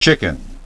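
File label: "chicken", english pronunciation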